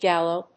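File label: "Gallo-", English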